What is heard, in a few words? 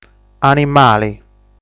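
"Animali", Sardinian word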